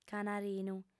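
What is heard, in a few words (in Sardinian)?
"canarinu", female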